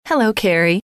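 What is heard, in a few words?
hello carrie, female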